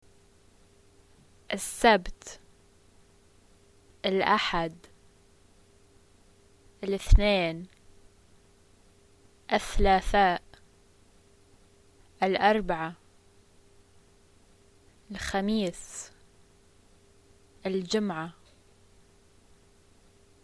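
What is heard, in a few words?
days of the week, arabic, Jordanian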